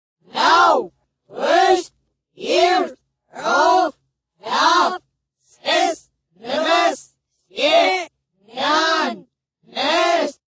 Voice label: Number Count FX, Group, countdown from 10-1, w. reverse. FX, speaking, (human voice); Talking Sound Effects